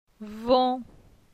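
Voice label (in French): "Von", French; phonetique; la syllabe on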